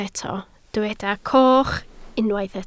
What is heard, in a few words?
"coch", Welsh